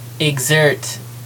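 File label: "exert", American English; pronunciations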